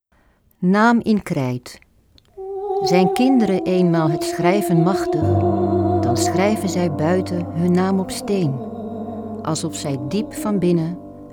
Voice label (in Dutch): gedicht- muziek